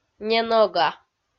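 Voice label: "ненога", Komi, female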